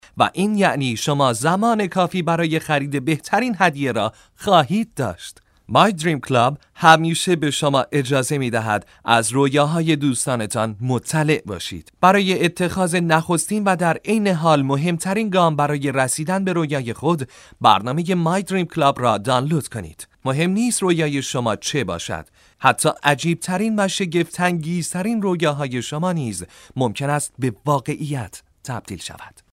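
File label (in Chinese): 波斯语男_外语_小语种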